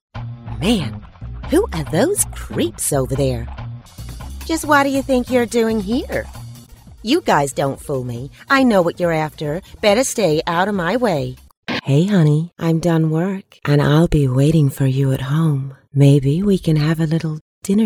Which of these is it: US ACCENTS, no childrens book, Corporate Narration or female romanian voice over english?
US ACCENTS